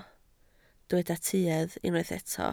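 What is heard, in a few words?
"tuedd" Welsh, spoken word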